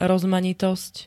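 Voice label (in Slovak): "rozmanitosť", Slovak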